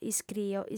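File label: "iscrio", Sardinian, female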